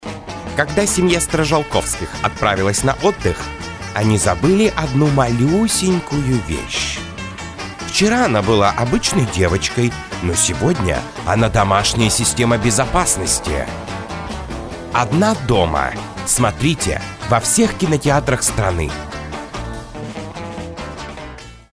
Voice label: volshebnyj skazochnik golos trejlera; odin doma

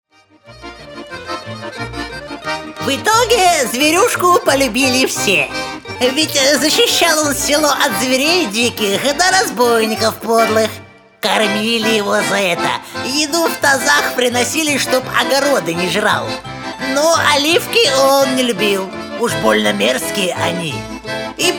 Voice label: babka skazochnica

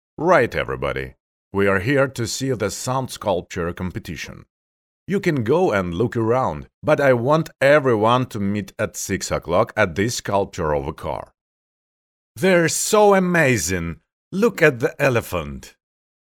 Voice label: lang anglijskij, golos

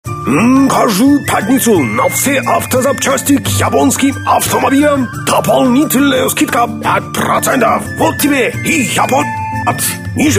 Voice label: yaponec

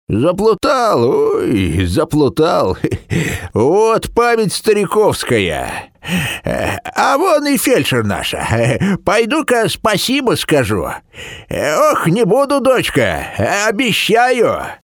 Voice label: ded derevenskij

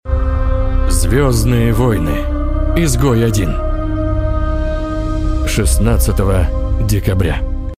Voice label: golos iz trejlera k filmu

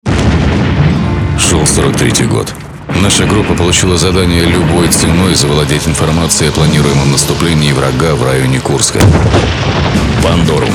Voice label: trejler golos kotrym obychno obchityvayut anonsya i otryvki kinopremer

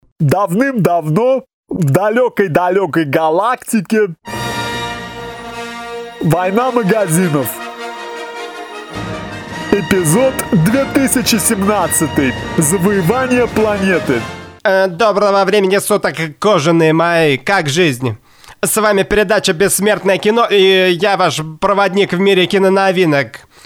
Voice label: perevodchik filmov

